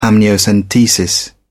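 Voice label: "amniocentesis", English, British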